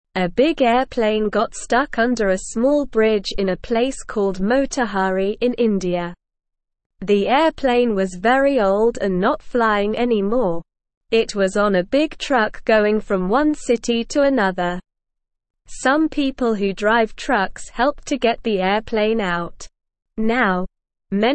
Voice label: English Beginner SLOW Reading; English Newsroom